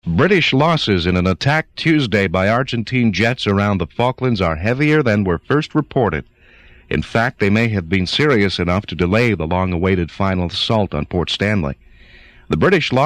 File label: News; CFNY; 1982; British losses severe, 1 Frigate and 2 landing craft